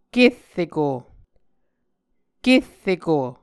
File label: "kerreko", Muscogee; Mvskoke Words with Voicing Consonants; Onvkv Ohhvyayices; A Dictionary of Creek/Muskogee; SIL International